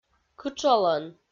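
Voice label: "кытшолӧн", Komi-Zyrian, female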